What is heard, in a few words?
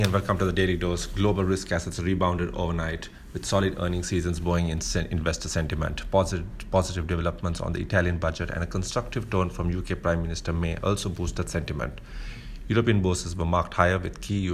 voice note; fixed income